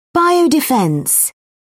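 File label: "biodefence", English, uk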